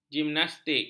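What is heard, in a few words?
"gimnastik", Malay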